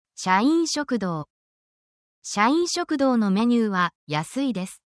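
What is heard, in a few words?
Japanese word, essential Japanese phrases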